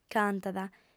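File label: "cantat", female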